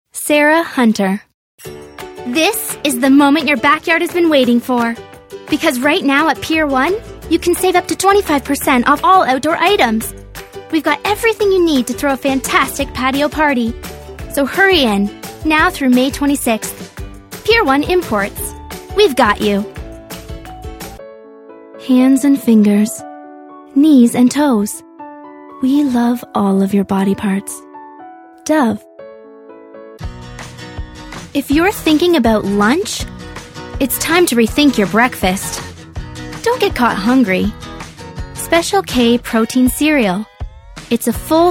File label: commercial